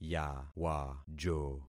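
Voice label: "yawajo", concatenated syllables; Affixing experiment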